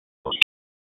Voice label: Raleigh Police Northeast District Tac, p25; wake